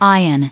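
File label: "ions", US English pronunciation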